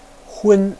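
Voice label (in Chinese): "hun", Chinese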